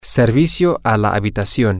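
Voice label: room service, Spanish, male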